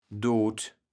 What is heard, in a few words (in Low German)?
"dot", kaevels platt